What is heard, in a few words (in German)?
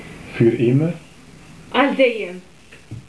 fuer immer, Maltesisch